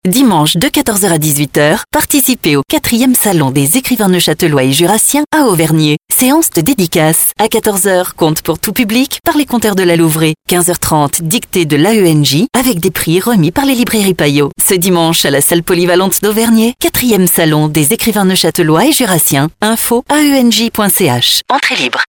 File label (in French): PROMO